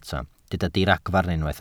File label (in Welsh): "diragfarn" Welsh